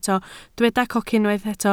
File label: "cocyn", Welsh